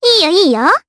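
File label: Vox Japanese; Annette; kingsraid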